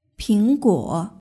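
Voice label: "pingguo" Chinese word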